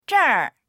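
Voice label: "zher4", Mandarin Chinese; 这儿; ここ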